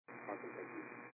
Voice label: 122 725MHz, scanner radio